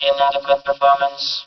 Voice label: "boring", Cyberworms; Speech; Worms Armageddon